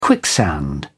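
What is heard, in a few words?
"quicksand", British English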